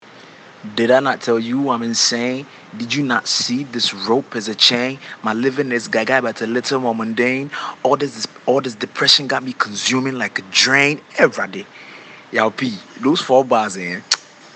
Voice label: Voice Note From Acropolis